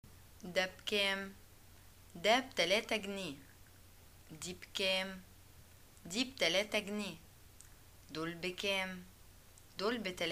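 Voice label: shopping how much, arabic, Egyptian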